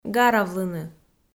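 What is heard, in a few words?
"гаравлыны", Komi, female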